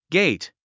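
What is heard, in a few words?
"gate", English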